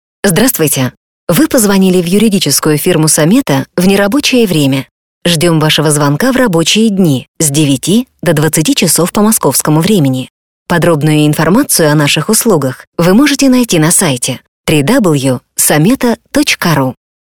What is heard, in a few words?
IVR